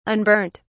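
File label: "unburnt", British English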